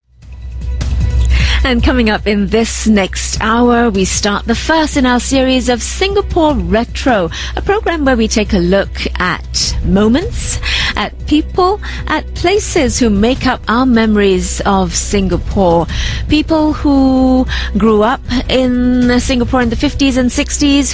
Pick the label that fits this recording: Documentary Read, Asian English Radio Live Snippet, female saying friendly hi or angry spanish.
Asian English Radio Live Snippet